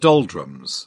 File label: "doldrums", British; AmericanBritish